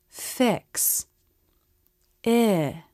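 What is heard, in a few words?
"fix", English; Short i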